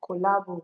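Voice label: "kolabo", Nigerian Pidgin, female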